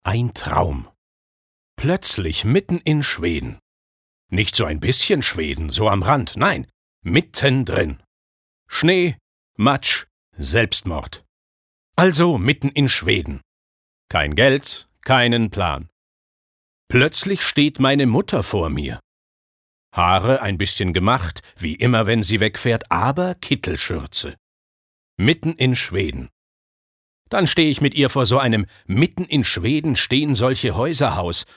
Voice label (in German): Schauspiel Dynamisch